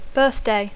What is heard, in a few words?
"birthday", English